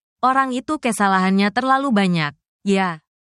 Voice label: indonesia, indonesian negative phrases